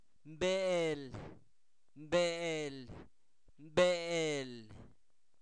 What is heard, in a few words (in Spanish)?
culebra, Zapoteco, santo domingo albarradas; animales; INALI